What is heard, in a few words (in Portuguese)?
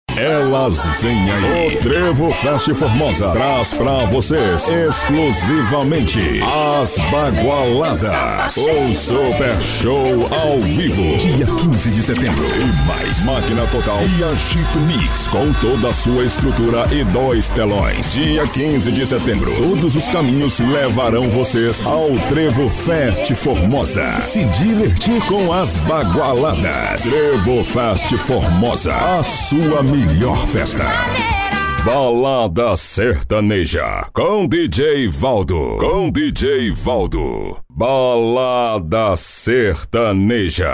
voz rodeio varejo jovem